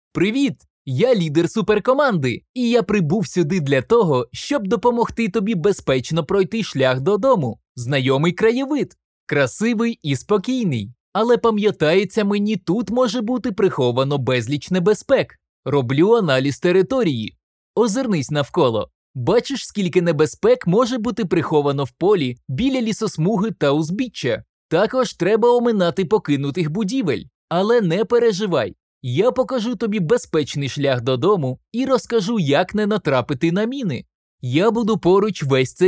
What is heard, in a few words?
Training for Kids in Ukrainian